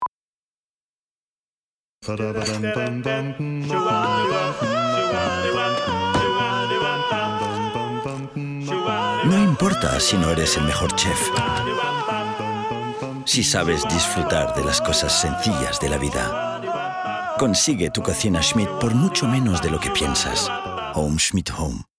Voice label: ESP; SCHMIDT Home

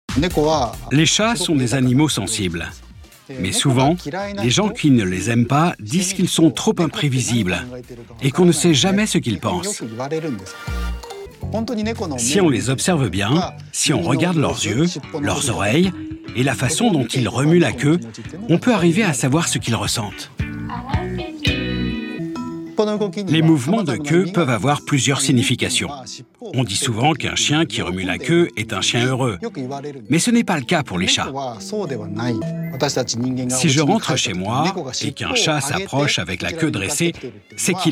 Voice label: Voice over; Notre langue aux chats

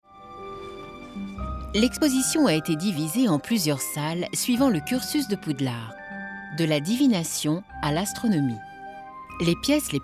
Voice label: Narration; Harry Potter; TMC

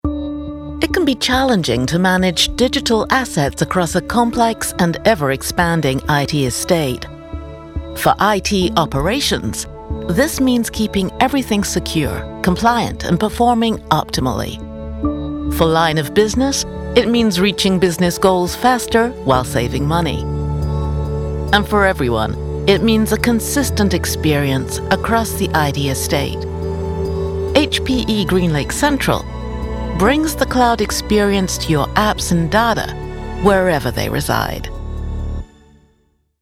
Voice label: corporate mid atlantic; hpe

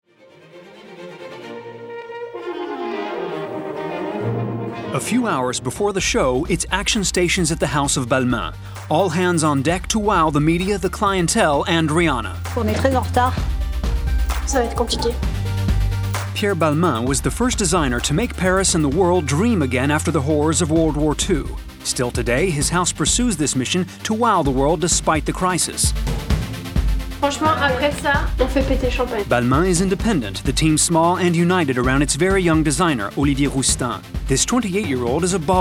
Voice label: NARRATION; BALMAIN

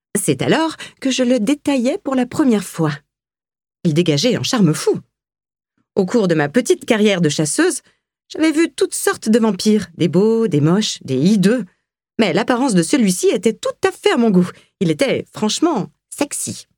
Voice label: narration; Secte dabadon

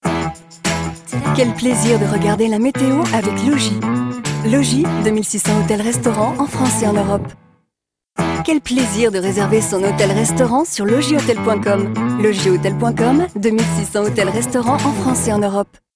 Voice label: BILLBOARD; LOGIS

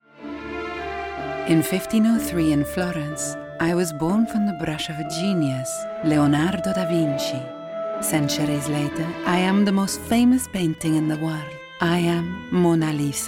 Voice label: ENGLISH Mona Lisa Italian accent; DS Edition; LOUVRE

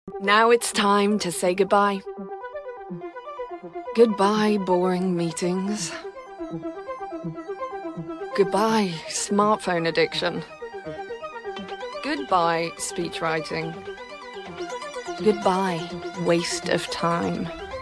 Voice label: commercial; post it

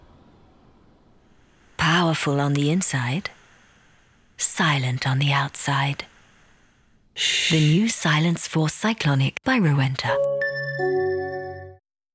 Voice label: ad; ROWENTA

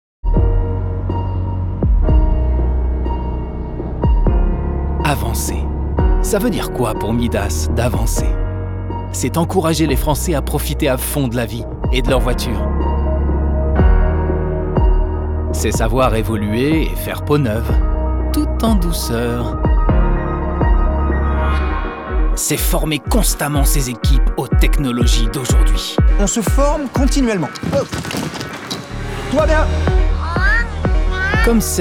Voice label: corporate; Midas

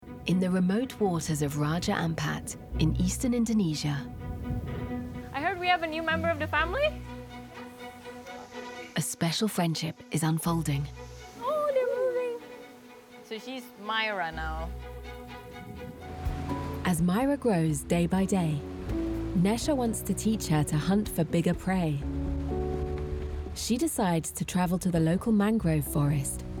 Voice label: Narration Shark Documentary ENG; voix-off

